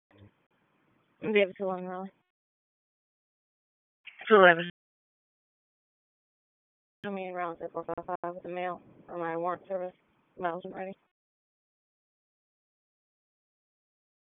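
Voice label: Police Northeast District Dispatch, p25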